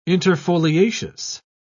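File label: "interfoliaceous", English, British English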